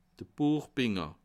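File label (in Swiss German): "buechbinder", Bas Rhin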